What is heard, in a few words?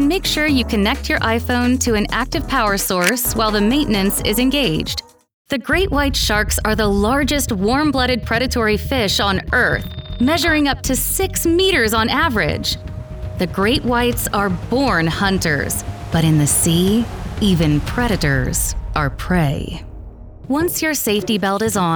ELEARNING NARRATION - WITH MUSIC